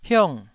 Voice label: "hiong4", taiwanese syllable

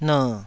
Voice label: "ner3", taiwanese

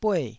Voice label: "bueh4", taiwanese syllables

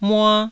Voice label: "mua1", taiwanese syllables

